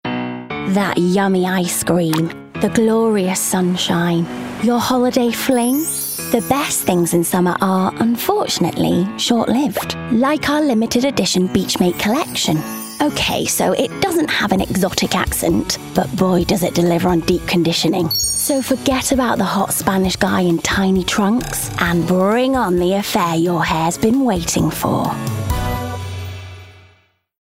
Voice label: Commercial Aussie

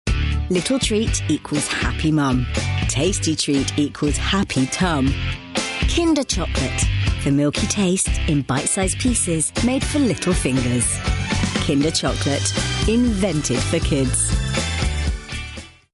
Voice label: Advert, BRIGHT MUMSY; KINDER

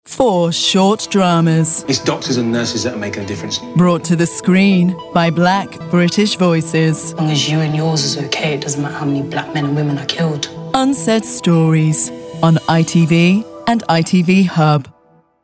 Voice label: TV Promo Continuity; ITV Hub

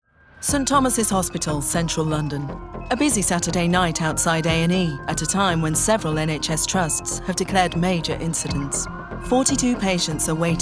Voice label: Narration DOCUMENTARY

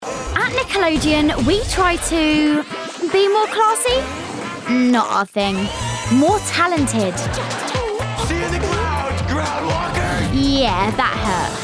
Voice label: Promo Reel

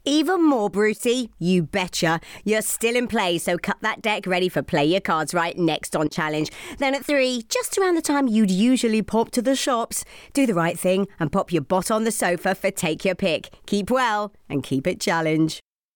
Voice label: Promo

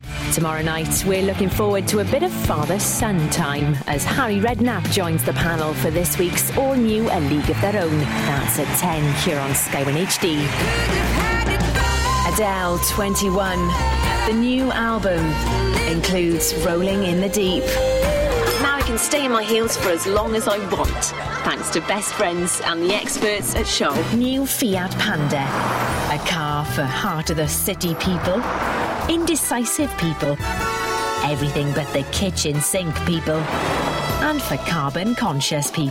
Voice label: Commercial

